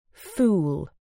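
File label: "fool", British English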